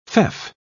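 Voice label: "feoff", English, British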